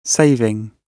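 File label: "saving", British English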